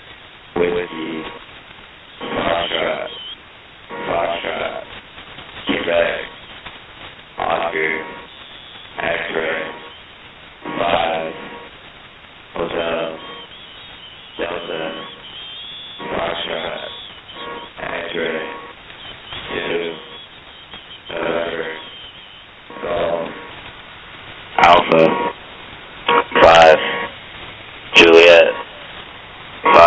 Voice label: HFGCS; For: Main Artery B5HLY7